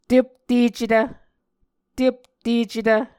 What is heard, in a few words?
"teptecetv", Muscogee